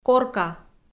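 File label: "корка", Komi, female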